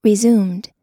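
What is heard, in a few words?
"resumed", US English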